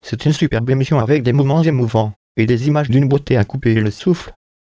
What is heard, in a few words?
synth